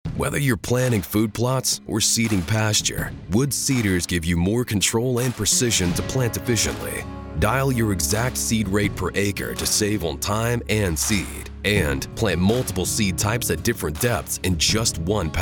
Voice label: Radio